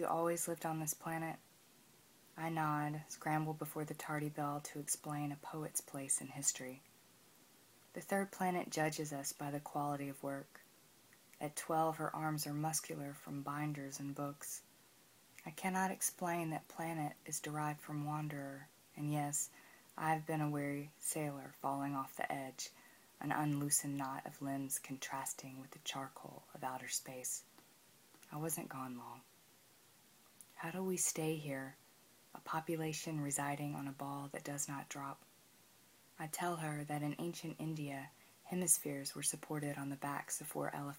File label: sixth graders discuss poetry